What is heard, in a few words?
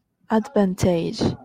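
"advantage", Japanese, female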